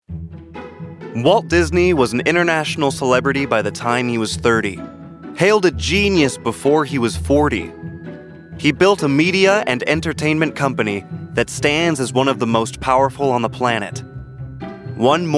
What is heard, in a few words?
Documentary; Disney